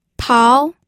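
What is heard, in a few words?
"pao2", Mandarin Chinese; pinyin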